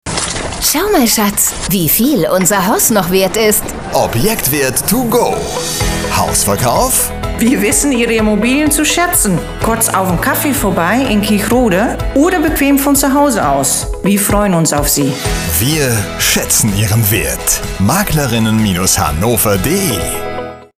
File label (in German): Radiospot; Maklerinnen Hannover; Objektwert2go